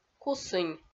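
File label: "кусынь", Komi, female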